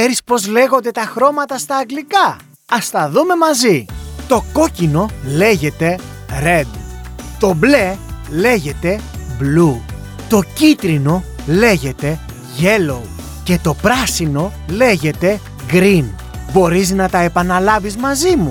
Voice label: greek e-learning

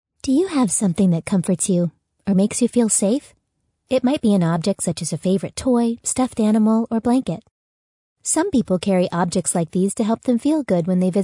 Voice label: english american training e learning

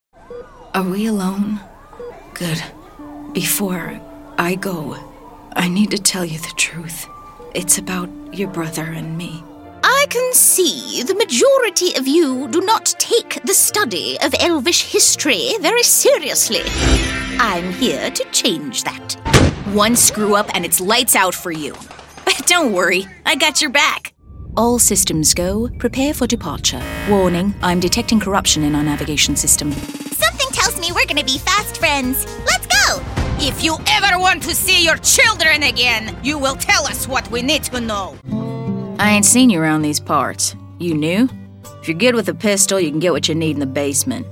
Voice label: english american video games

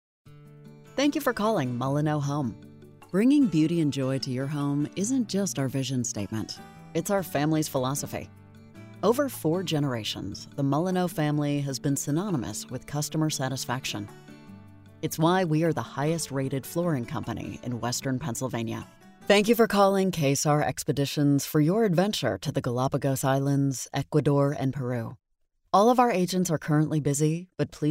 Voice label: english american ivr

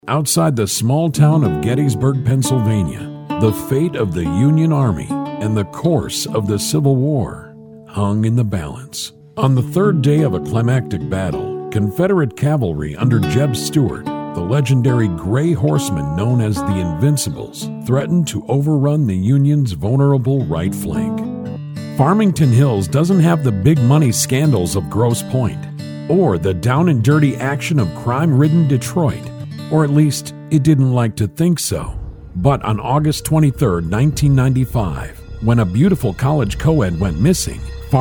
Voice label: english american narration

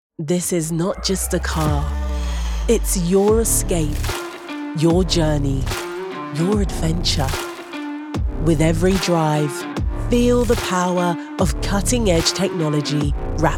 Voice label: english british automotive, british voice actors

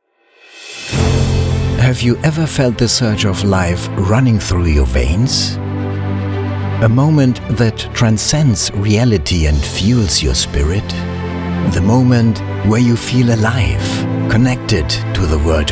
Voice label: English commercial; professioneller zweisprachiger Sprecher

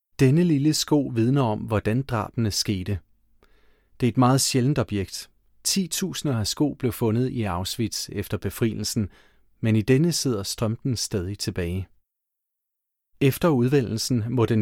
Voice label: Danish documentaries

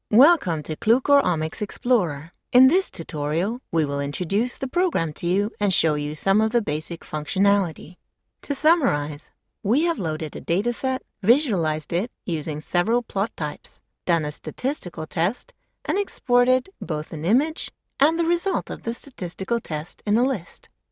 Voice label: english e-learning

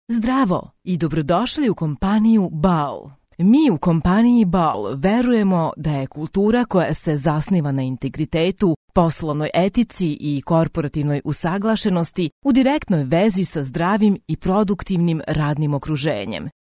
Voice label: Serbian automotive

